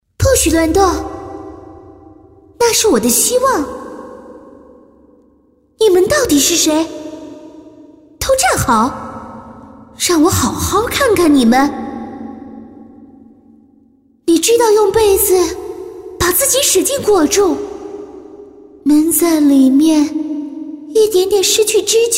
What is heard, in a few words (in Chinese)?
女-动漫-鬼小女孩